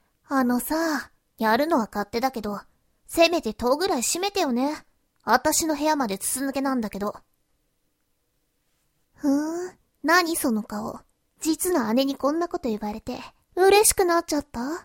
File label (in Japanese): moe voice ane